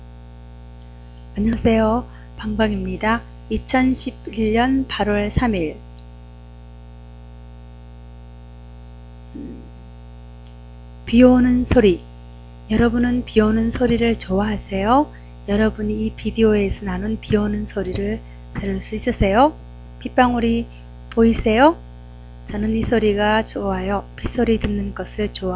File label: korean do you like the sound of rain